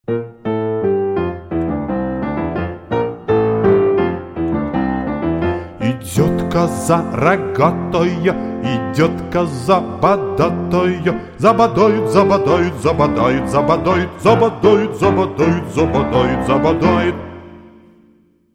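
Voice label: nursery rhymes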